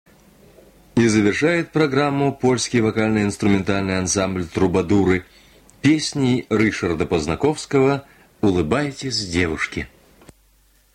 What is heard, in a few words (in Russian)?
golos diktora; Голос; Дикторы; Радио "Маяк"